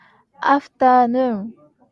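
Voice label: "afternoon", Japanese, female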